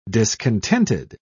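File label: "discontented", English, British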